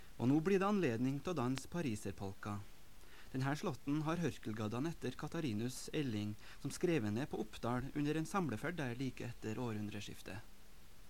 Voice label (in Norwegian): Tale